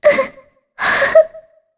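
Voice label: Sad, Korean; voices; heroes; Shea; kings raid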